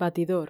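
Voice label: "batidor" Spanish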